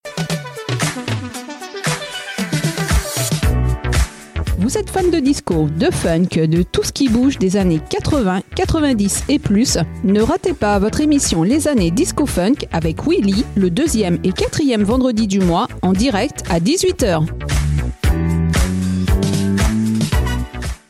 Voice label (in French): Annonce, voix radio émission; Annees disco funk